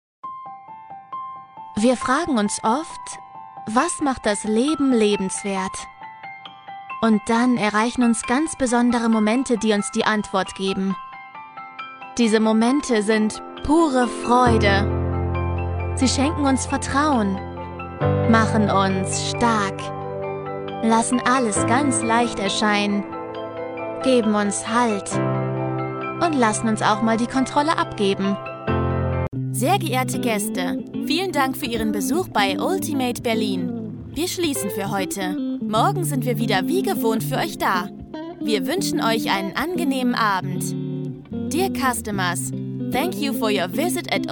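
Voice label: German, female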